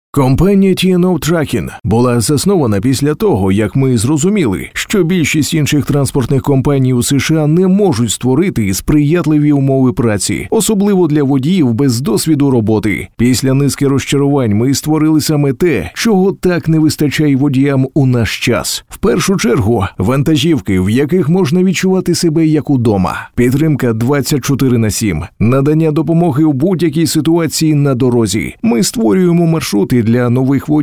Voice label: Ukrainian narration

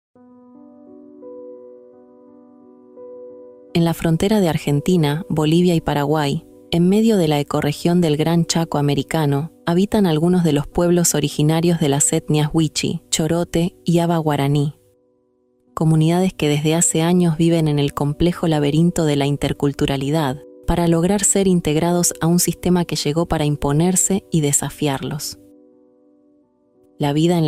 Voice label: spanish argentinian documentaries

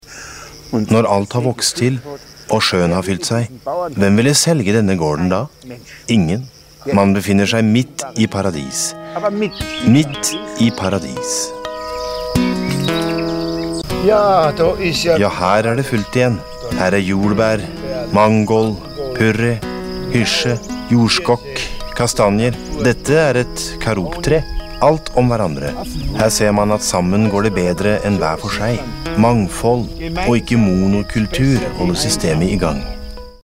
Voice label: Norwegian documentaries

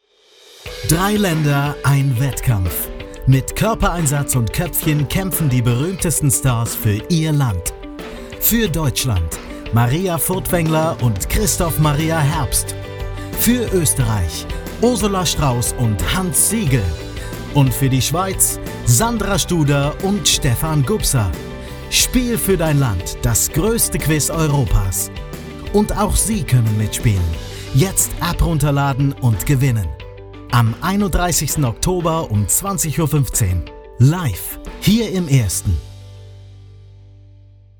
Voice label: german tv shows

